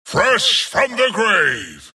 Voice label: wraith death; dota; skeleton king

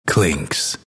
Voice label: announcer pick clinkz; dlc bastion

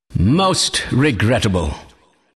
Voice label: announcer death; dlc kleiner

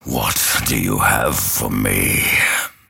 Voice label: lasthit pain; dota; grimstroke

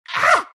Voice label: naga pain; dota; naga siren

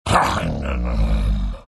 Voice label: anger; dota; beastmaster

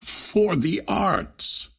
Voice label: lasthit pain; ringmaster

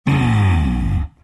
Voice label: treant happy

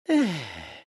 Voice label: happy; dota; tinker

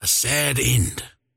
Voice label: last hit pain; dota; largo

